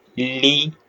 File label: short voyels arabic alphabet